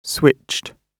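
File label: "switched", British English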